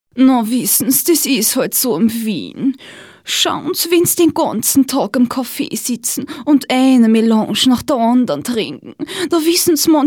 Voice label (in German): wienerisch; dialekt